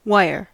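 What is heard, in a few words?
"wire", American English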